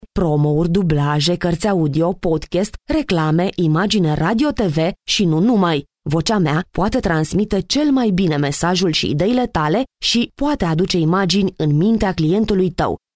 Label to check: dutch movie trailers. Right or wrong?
Wrong